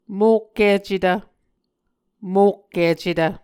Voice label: "mokkicetv", Muscogee; Mvskoke Words with Repeated Consonants; Martin, Jack B., and Margaret McKane Mauldin. 2023. A Dictionary of Creek/Muskogee

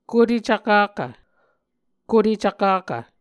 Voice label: "kote cvkakv", Muscogee; Mvskoke Words with Voicing Consonants; A Dictionary of Creek/Muskogee; SIL International; Onvkv Ohhvyayices

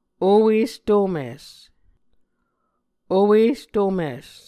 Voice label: "owestomis", Muscogee

